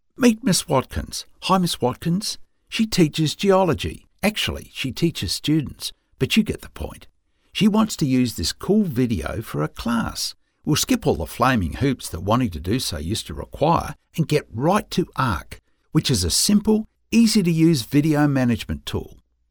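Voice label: English Australian, male, voice over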